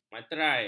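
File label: "materai", Malay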